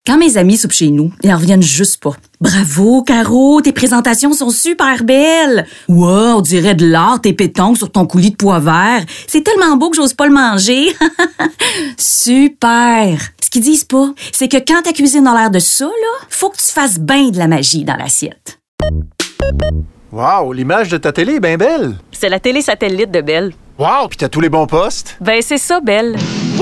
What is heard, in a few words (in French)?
demo voix